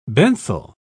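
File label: "benthal", English, British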